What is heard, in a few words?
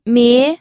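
"mie", second tone, pinyin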